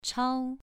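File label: "chao" Mandarin, second tone, pronunciation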